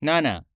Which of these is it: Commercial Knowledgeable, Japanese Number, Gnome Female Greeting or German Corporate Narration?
Japanese Number